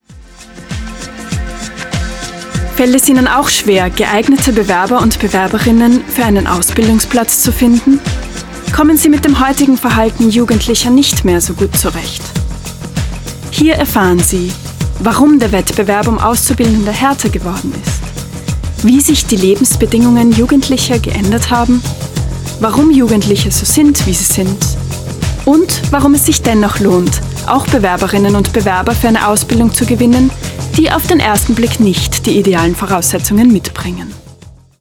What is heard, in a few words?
E-Learning